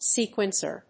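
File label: "sequencer", English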